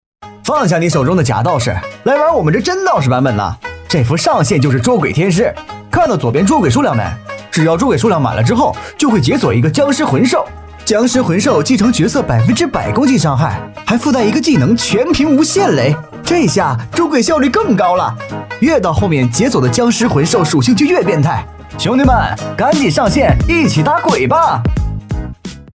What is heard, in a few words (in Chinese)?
信息流游戏; 道士捉鬼